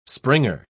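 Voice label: "springer", English, British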